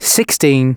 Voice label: announcements male